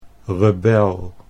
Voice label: "rebel", Dutch

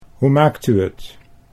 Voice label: "hoe maakt U het", Dutch

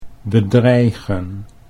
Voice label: "bedreigen", Dutch

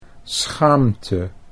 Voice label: "schaamte", Dutch

